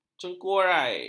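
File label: "cengkurai", Malay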